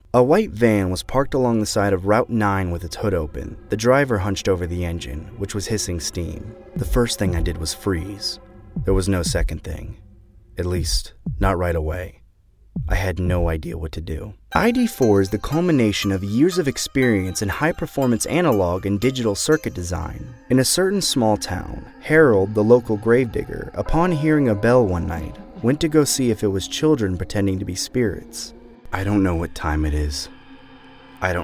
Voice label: Narration